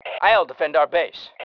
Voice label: defending base, male